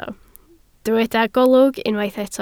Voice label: "golwg", Welsh